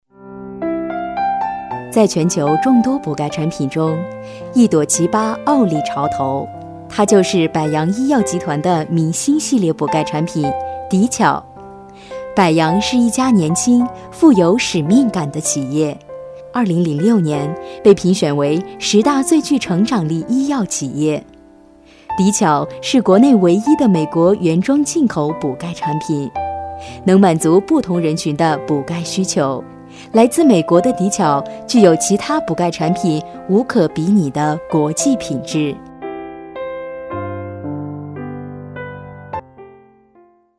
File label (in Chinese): 女 专题 成熟亲切 医药产品专题片解说女声; 百杨医药